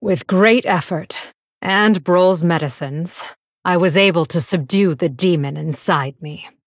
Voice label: Female Blood Elf; Valeera

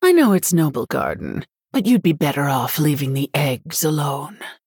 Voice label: Female Human HAPPY NOBLEGARDEN

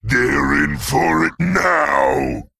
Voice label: Male Worgen Play

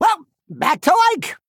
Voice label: Male Goblin; Whirleygig; Mission4 Loss

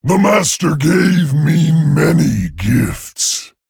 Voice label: Male Satyr

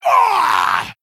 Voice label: Female Quilboar Death

